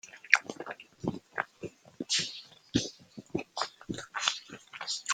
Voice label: voice message; whatsapp